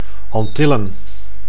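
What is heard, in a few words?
"Antillen", Dutch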